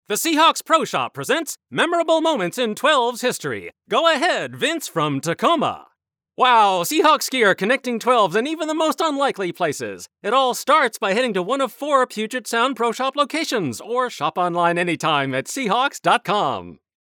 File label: Comm Pro Shop announcer; Seahawks Pro Shop - Memorable Moments in History - 1930's announcer - forward - fast